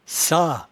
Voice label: "cat", patois jura

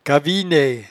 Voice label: "cavienaie", patois jura

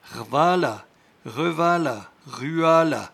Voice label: "rvala", patois jura

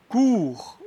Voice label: "couerebis", patois jura

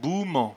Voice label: "bouement", patois jura

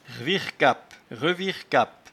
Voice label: "rviercape", patois jura

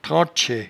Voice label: "trantchet", patois jura

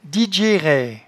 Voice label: "didgeraie", patois jura

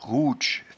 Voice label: "rouetche", patois jura

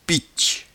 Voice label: "pitche", patois jura

